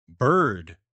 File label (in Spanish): pájaro inglés; palabras ingles infantil